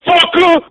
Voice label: fucker irate black man; Voice; expletives